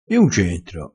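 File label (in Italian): "ave paura della gatta", dialettale crespinese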